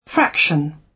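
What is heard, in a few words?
"fraction" British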